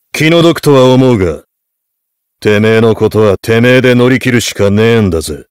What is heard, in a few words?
heavy taunts